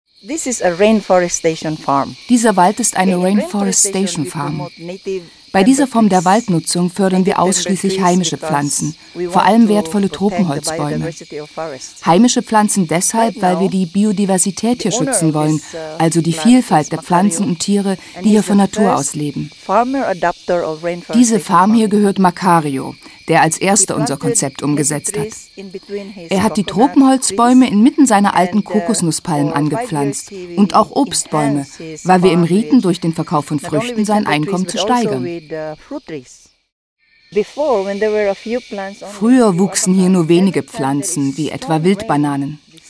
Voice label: Feature Regenwald

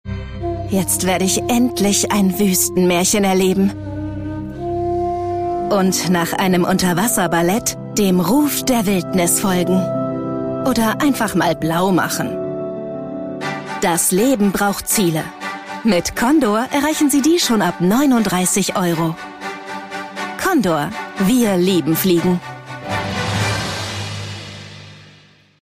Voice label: TV-Werbung – Airline

